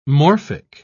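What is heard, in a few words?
"morphic", British English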